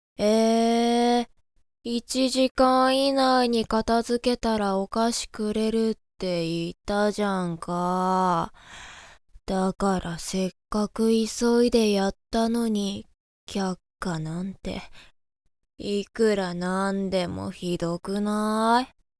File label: boy